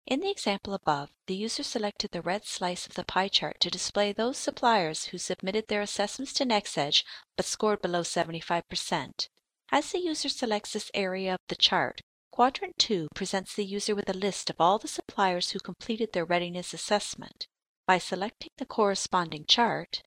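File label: Canadian English, female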